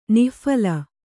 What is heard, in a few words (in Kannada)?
"ನಿಃಫಲ", Kannada; nih phala